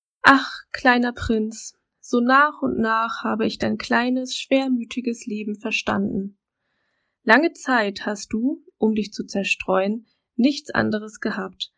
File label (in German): Deutsch Barmstedt